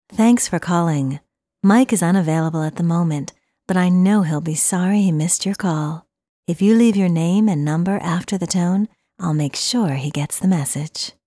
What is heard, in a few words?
voicemail sexy